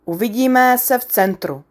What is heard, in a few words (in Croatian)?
češki; POP UP Zagreb